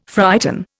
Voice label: "frighten", Indian